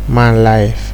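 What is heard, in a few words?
"man life", Nigerian Pidgin, male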